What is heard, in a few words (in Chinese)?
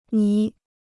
"泥", Chinese